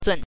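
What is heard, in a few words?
"zun", Mandarin Chinese syllable, neutral tone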